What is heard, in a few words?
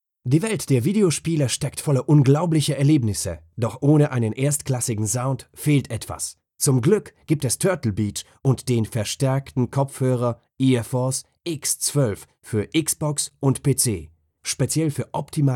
commercial, german